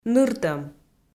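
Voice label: "ныртӧм", Komi, female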